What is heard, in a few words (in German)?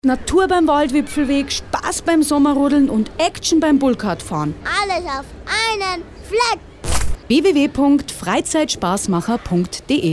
Werbung Reminder; Freizeitspassmacher St Englmar